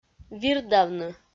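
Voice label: "вирдавны", Komi, female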